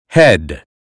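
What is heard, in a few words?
"head", American English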